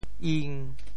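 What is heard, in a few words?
"ing1", Teochew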